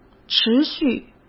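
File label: "持续", Mandarin Chinese; hsk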